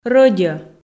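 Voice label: "рӧдьӧ", Komi, female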